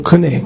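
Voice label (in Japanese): "kune", 南山形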